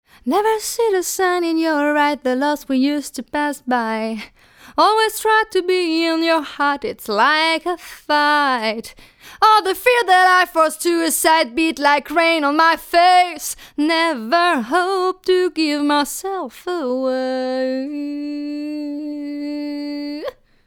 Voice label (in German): Brauner phantom, mikrofon test vergleich